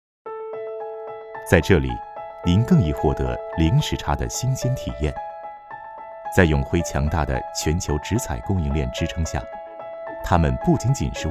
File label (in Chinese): 男国 宣传片 企业 自然; 易鲜生活